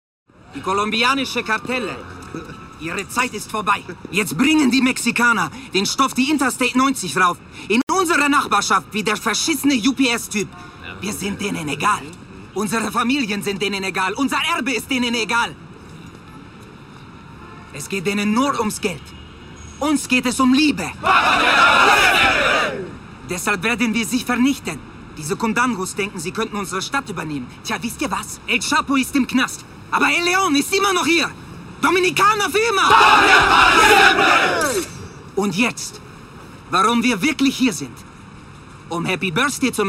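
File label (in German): Schauspiel Energisch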